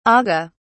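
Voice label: "agha", British English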